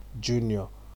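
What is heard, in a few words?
"junior", Nigerian Pidgin, male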